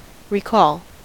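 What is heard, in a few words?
"recall", American English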